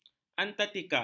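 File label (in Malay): "antartika", Malay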